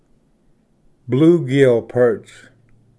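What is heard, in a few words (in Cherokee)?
"bluegill perch", Cherokee word, male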